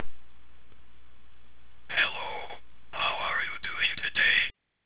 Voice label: Whisper